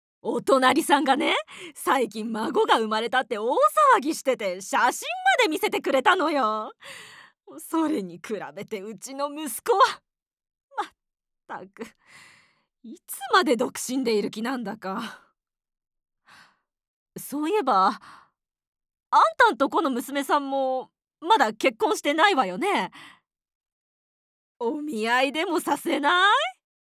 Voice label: 下世話なおばさん

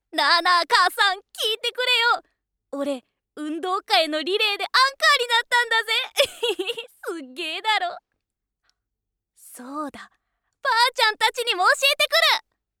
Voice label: Shonen Teigakunen

